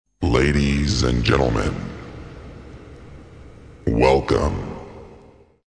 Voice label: Jingle Ladies & gents welcome (men)